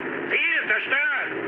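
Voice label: German bomb success mood low, speech; War Thunder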